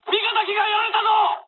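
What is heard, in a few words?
Japanese aircraft destroyed mood high; War Thunder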